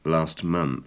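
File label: last month, British English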